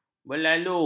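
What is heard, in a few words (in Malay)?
"berlalu", Malay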